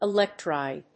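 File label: "ELECTRIDE", English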